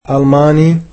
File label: "German", egyptian